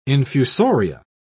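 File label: "infusoria", British English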